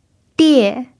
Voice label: "die4", Mandarin pinyin

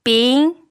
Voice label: "bin2", pinyin

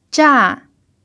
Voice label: "zha4", Mandarin pinyin

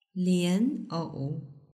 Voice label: lianou, Mandarin; vegetable

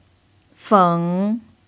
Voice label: "feng3", Mandarin pinyin

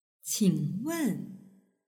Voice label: "qingwen", Mandarin

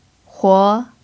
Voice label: "huo2", Mandarin pinyin

